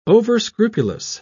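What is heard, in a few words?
"overscrupulous", English, British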